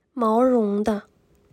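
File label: "毛绒的", Chinese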